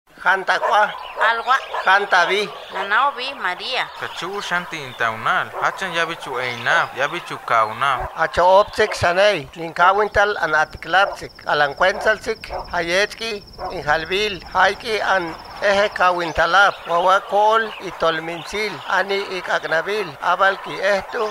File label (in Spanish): Lemgua Tenek; FI Tantoyuca; Proteccion, preservacion de las lenguas indigenas